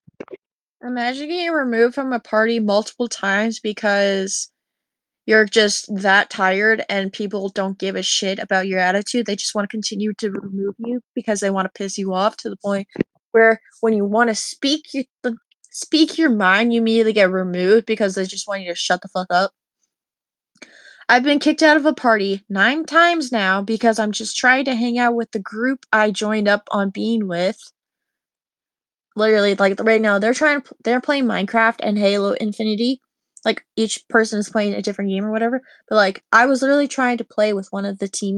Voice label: voice message